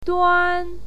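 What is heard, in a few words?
"duan", Mandarin Chinese, first tone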